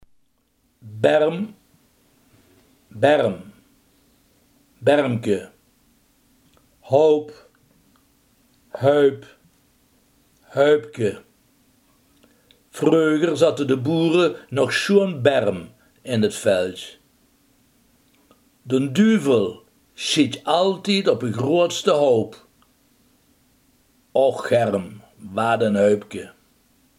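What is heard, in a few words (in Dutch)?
hoop, Limburgse gesproken woordenboeken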